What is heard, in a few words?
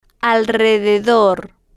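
"alrededor", slow, spanish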